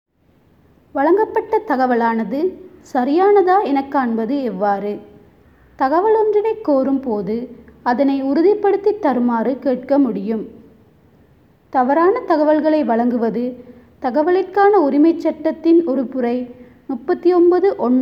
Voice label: Tamil